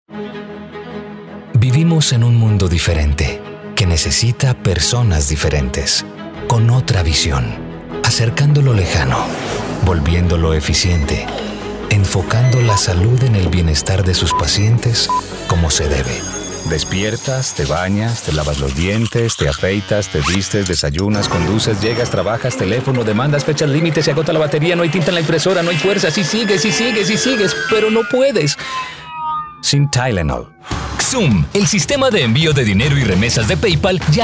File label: spanish latin american commercial